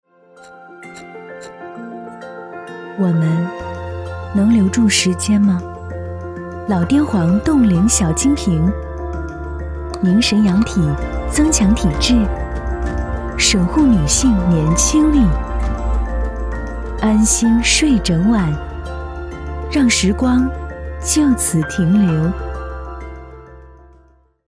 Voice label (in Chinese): 女 广告, putonghua; 老滇凰